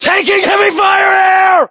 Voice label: US inform suppressed generic, battlechatter, english